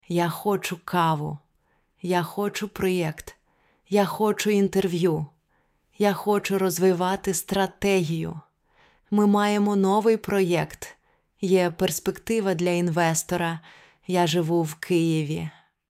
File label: Continuous Shadowing Track, ukrainian pronunciation